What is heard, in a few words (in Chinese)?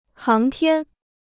"航天", Chinese